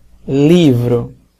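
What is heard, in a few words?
"book", Brazilian Portuguese; gcompris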